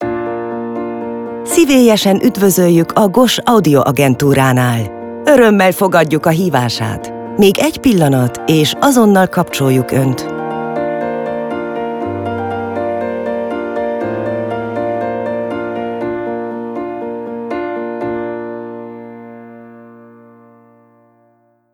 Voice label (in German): Ungarisch Tele Ansage